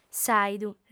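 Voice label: "s'àidu", lingua sarda, female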